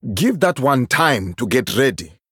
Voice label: Male Troll ERROR SUMMON SICKNESS